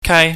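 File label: "kai", Cantonese, high falling tone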